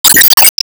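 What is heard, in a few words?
Voice Emo Inspire Team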